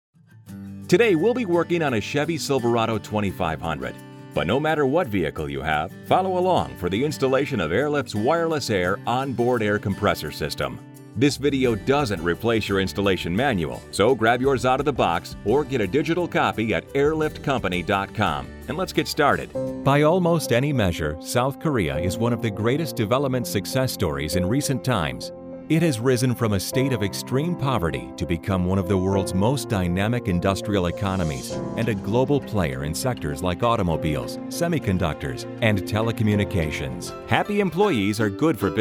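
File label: Narration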